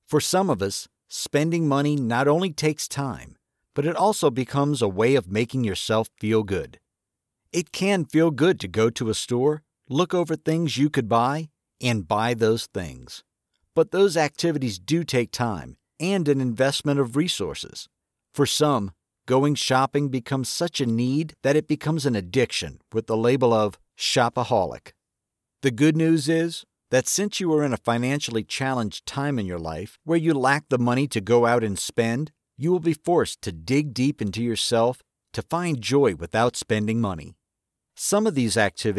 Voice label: english american audiobooks

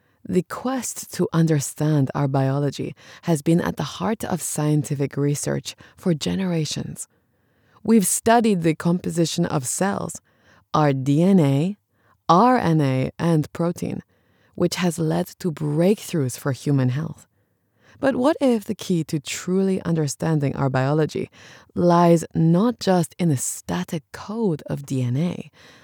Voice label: english international medical narration

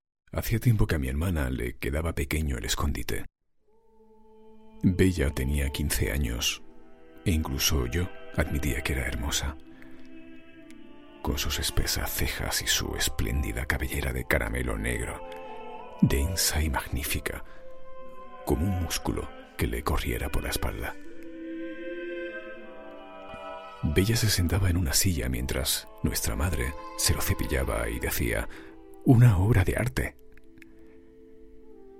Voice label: spanish audiobooks

